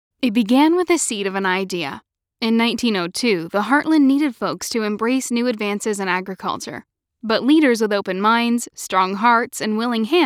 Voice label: Explainer

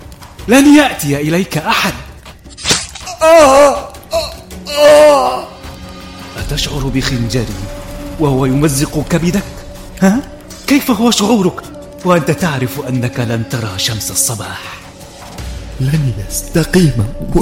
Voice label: acting fusha

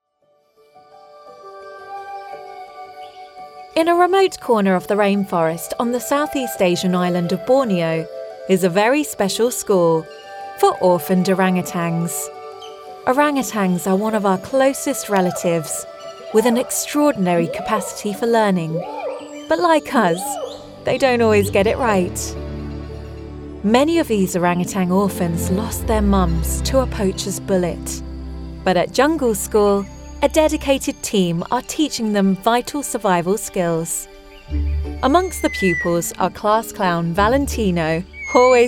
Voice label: Documentary Reel

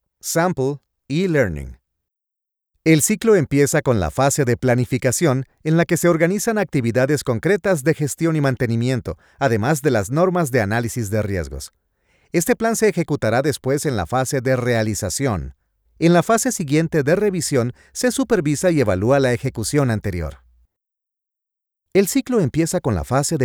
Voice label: eLearning

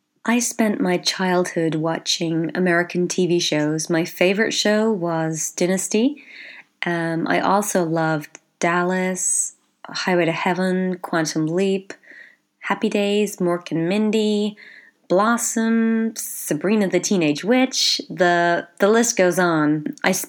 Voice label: US ACCENT

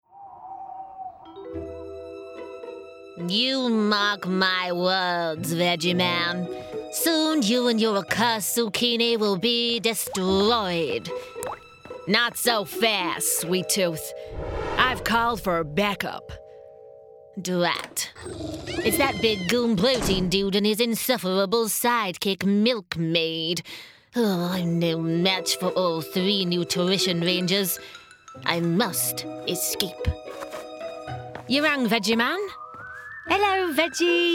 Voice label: animation

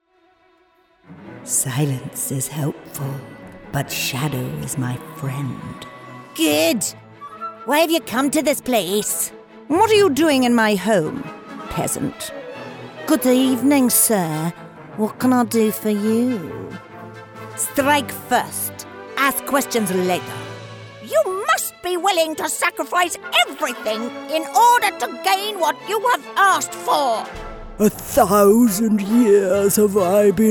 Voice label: Animation

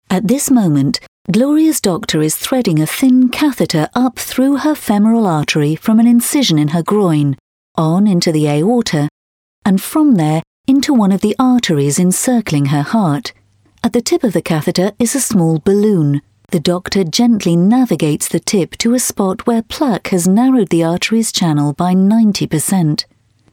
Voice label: documentaries

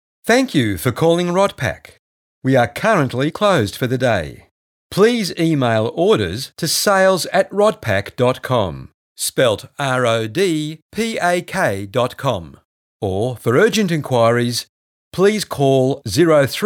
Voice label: IVR

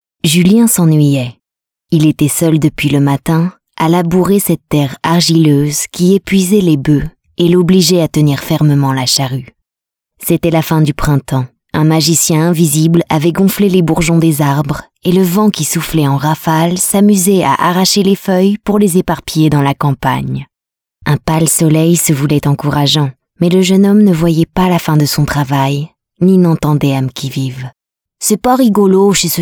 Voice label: Audiobook

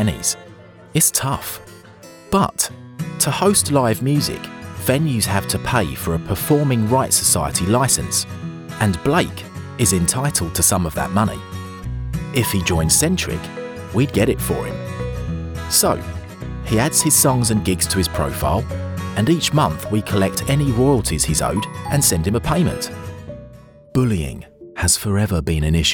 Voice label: narrative reel

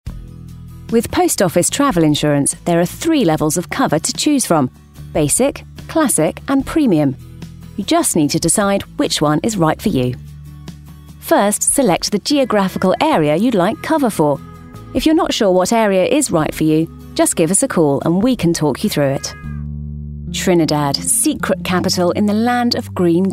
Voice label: Narrative Voicereel

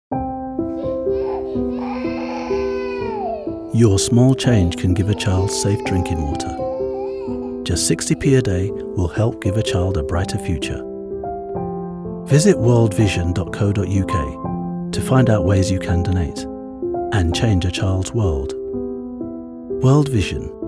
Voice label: Advert; World Vision

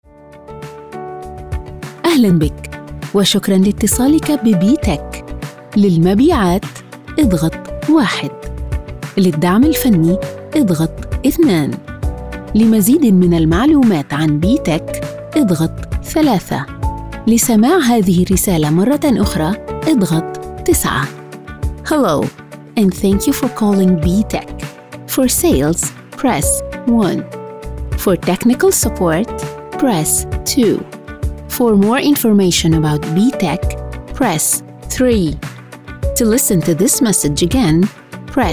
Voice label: IVR Arabic English; B tech

